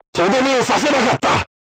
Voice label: voice message armor not pierce, crew tanks japanese